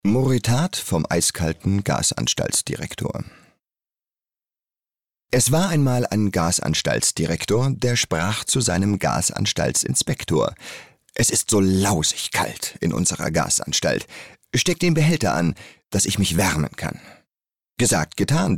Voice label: erzaehlung

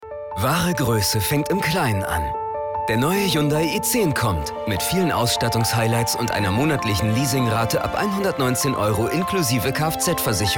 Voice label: Werbung Funk; Hyundai i10

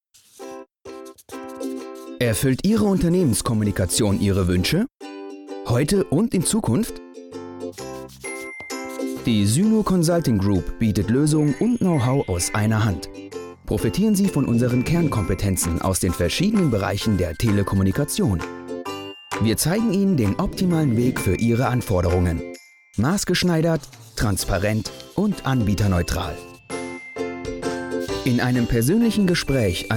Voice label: Imagefilm; SYNO Consulting Group GmbH

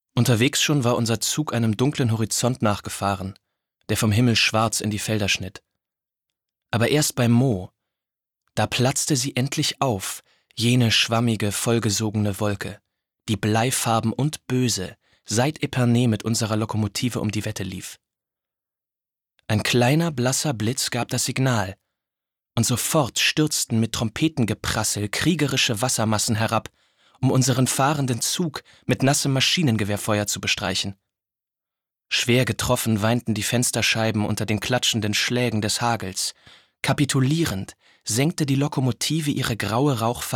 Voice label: Erzaehlung

